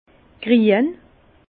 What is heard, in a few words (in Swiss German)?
"grien", Bas Rhin; vin; vert